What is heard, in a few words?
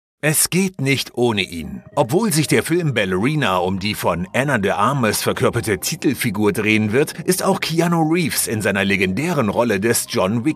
german voice social media